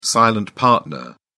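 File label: "silent partner", British English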